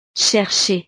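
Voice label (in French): "chercher", French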